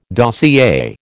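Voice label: "dossier", American English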